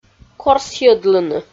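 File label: "крсйӧдлыны", Komi, female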